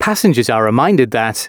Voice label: announcements, male